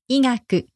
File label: "igaku", Japanese; medicine